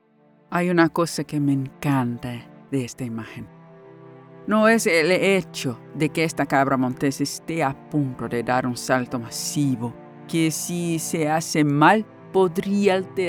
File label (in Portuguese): Motivacional Espanhol, spanish female voices